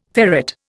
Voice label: "ferrate", Indian

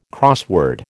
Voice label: "crossword", American

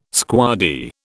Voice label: "squaddie", British

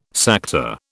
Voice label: "Sakta", British

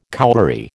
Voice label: "cowberry", American

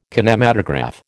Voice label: "kinematograph", American

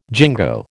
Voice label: "jingo", American

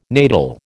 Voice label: "natal", American

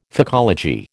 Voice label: "phycology", American

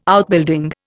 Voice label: "Outbuilding", Indian

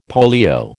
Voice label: "polio", American

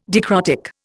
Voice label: "dicrotic", Indian

